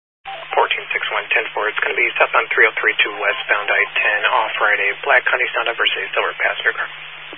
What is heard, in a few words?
Highway Patrol, Phoenix Metro West Area, scanner